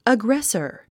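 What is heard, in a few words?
"aggressor", English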